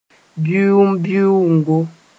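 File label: "bɩʋmbɩʋʋngo", Mooré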